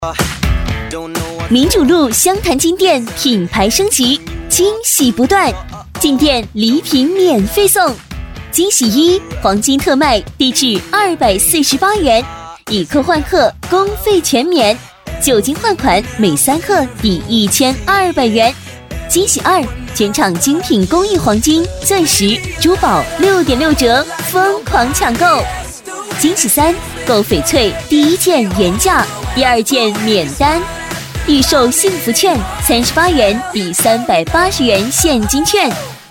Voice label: 【促销】黄金珠宝女 舒缓

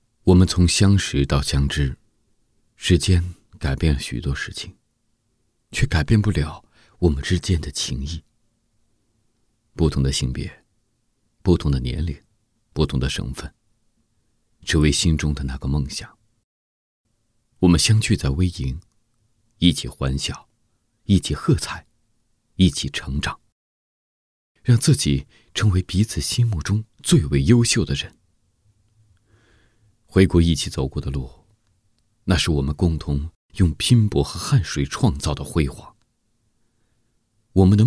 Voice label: 旁白 人物独白 男

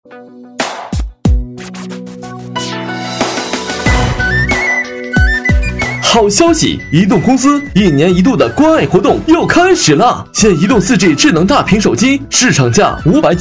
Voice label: 【促销】手机店男

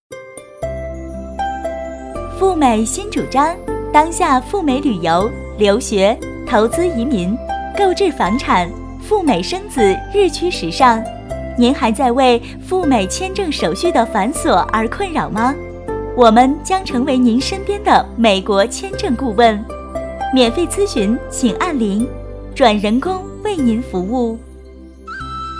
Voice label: 彩铃 女 甜美; 赴美签证彩铃

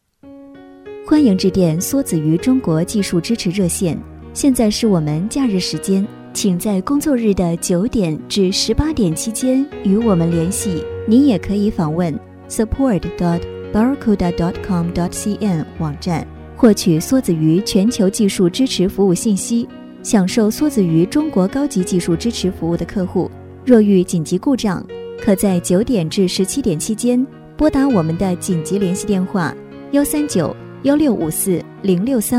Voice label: 彩铃 节假日中音文彩铃女 甜美; 【彩铃】节假日中音文彩铃女18-甜美

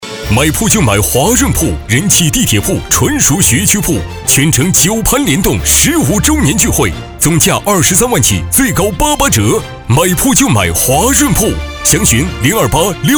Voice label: 广告 浑厚有力, 男; 华润

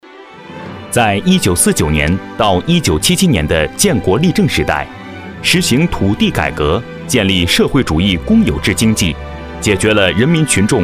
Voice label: 专题 男 年轻轻松; 扶贫开发

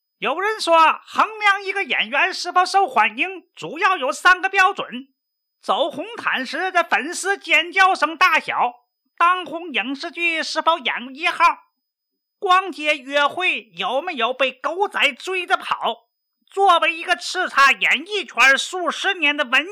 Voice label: 模仿配音 男 幽默; 黄宏模仿配音

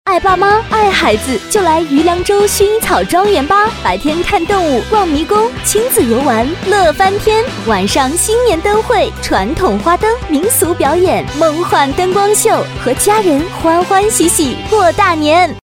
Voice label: 电台包装 广告 女 时尚; 广告鱼梁洲薰衣草庄园女50

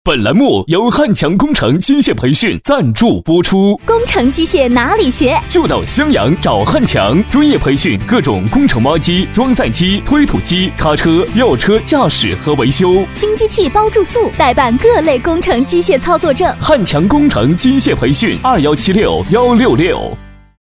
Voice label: 电台包装 男 女 时尚; 工程机械; 冠名汉强工程机械男88女50

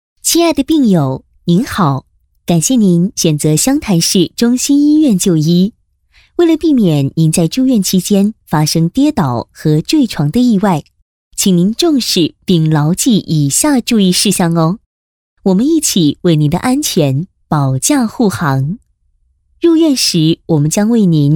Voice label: 【专题】医院女 亲切

